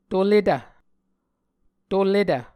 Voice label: "toletv", muscogee